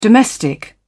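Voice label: "domestic", British English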